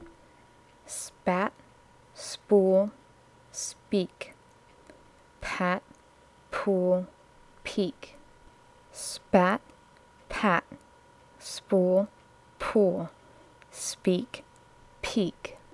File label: aspiration